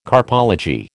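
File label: "carpology", American English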